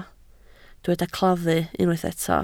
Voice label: "claddu", Welsh